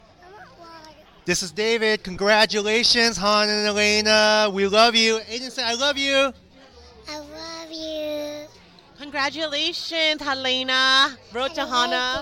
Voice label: Audio Guest Book